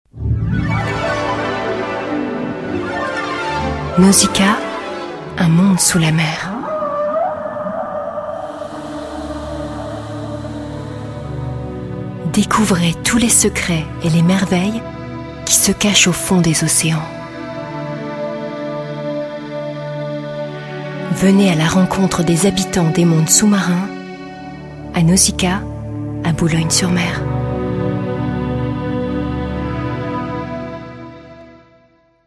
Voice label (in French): Pub radio; Nausicaa